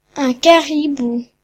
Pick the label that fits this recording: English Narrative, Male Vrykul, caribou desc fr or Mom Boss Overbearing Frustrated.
caribou desc fr